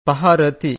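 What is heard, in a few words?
"paharati", Pali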